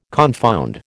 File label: "confound", American